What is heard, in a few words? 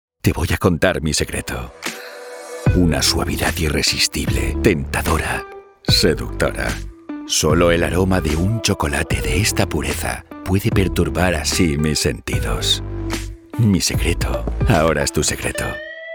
Spanish commercial; Español; España; actor de doblaje profesional en España